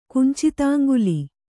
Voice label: "ಕುಂಚಿತಾಂಗುಲಿ", Kannada